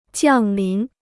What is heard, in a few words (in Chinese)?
"降临", Chinese